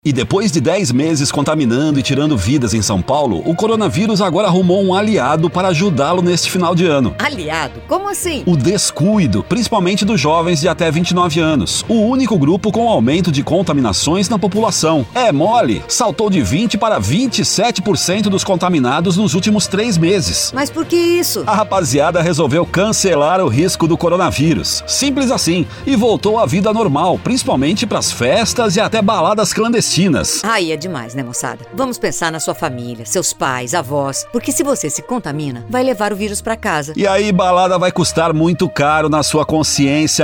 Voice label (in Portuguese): spot alerta; coronavirus; Linha Alerta; Radio